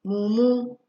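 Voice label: "mumu", Nigerian Pidgin, female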